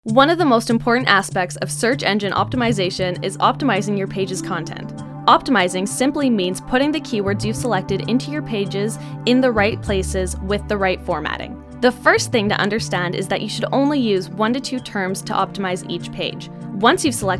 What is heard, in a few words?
Narration VO